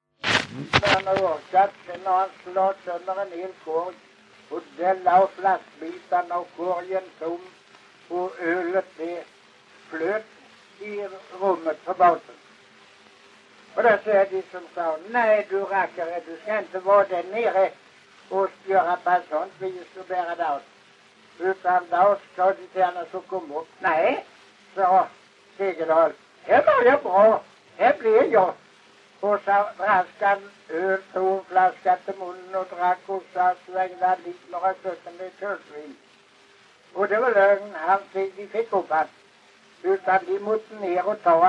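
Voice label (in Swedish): Kristianopel Blekinge, dialekter; Folkminnen; Svenska sagor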